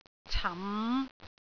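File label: "cam5", Cantonese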